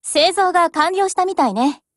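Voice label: BUILD OVER, Japanese, Voice; GF Alarm; M2HB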